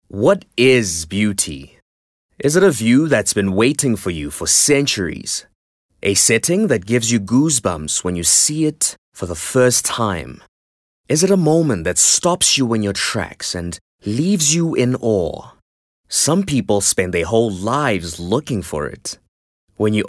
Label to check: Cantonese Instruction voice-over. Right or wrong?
Wrong